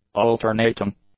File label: "alternating", English; adjectives